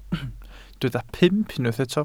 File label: "pump", Welsh